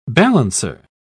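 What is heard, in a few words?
"balancer", British English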